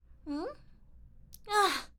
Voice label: Girl Voice

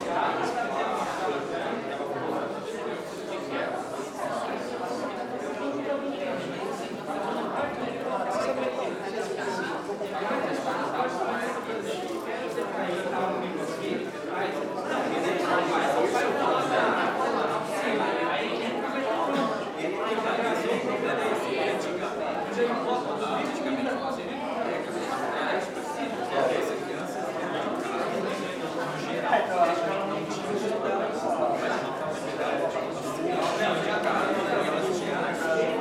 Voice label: Students Talking

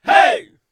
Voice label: Few Male Hey Shout

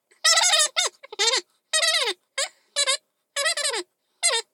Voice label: Chipmunk Telephone Gibberish

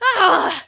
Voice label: Seriously Exasperated

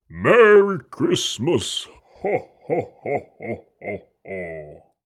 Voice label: Santa Claus Merry Christmas Ho Ho Ho

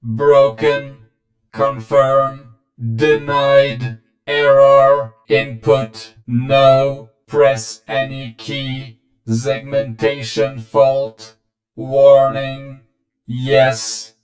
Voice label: Cyber Voice

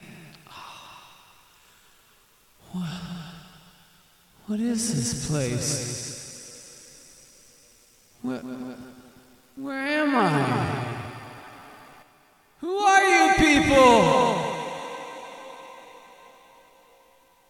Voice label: Where Am I Male Vocal

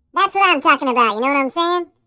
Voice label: Chipmunk Voice "That's What I'm Talking About"

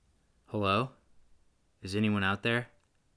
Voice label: Hello Is Anyone Out There Curious Male

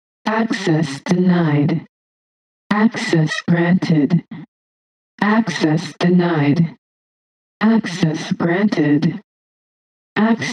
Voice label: Access Denied Granted Female

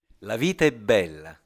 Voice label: Life Is Beautiful Italiano

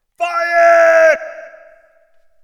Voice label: Fire Shout

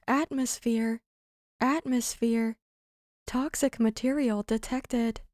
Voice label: Unsettling Female Ai Vocal Sample

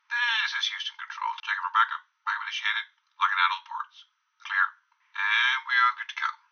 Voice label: Nasa Chatter

